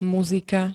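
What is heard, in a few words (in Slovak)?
"muzika", Slovak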